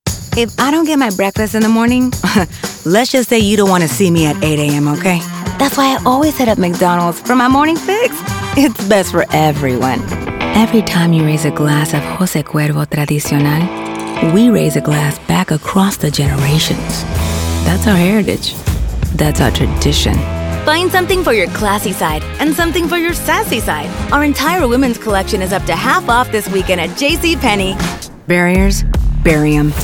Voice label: English Commercials